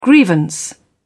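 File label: "grievance", British English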